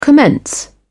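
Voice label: "commence", English, British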